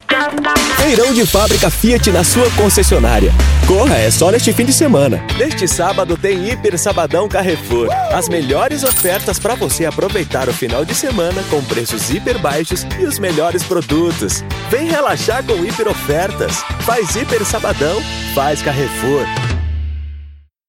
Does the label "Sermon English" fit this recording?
No